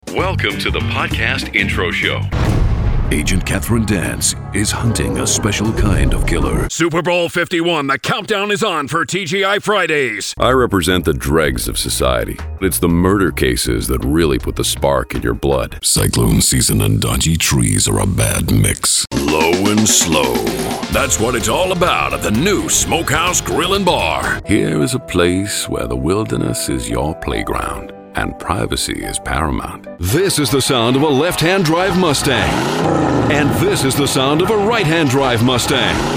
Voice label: Versatile, Believable, Male Voice Over, Natural Australian; USA VO Reel